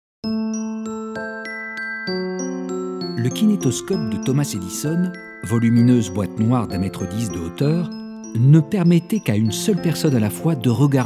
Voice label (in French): VOIX OFF Documentaire